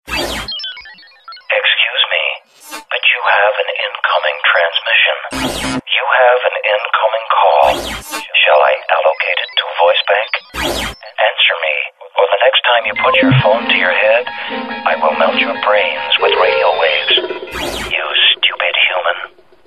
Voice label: 3d Robot Voice Call